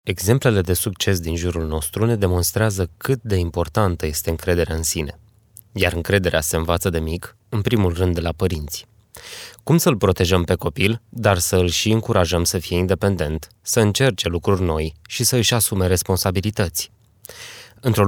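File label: romanian male narration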